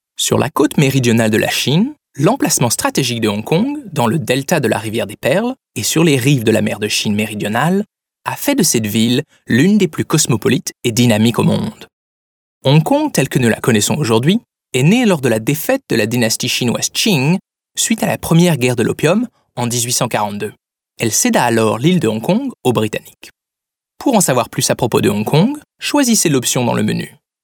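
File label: French male corporate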